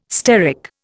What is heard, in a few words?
"steric", Indian